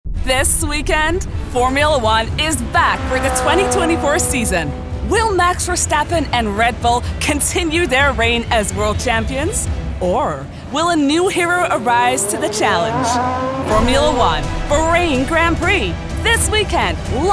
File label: Formula1, newsreader / sincere / sports commentator; en-GB; tv promos